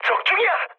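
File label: Korean player killed enemy mood high, speech; War Thunder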